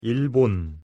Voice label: "일본" Korean; pronunciation

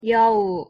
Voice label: "여우" Korean; pronunciation

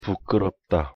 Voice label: "부끄럽다" Korean; pronunciation

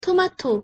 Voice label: "토마토" Korean; pronunciation

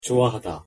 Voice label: "좋아하다" Korean; pronunciation

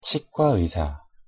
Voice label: "치과의사" Korean; pronunciation

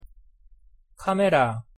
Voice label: "카메라" Korean; pronunciation

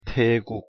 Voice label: "태국" Korean; pronunciation

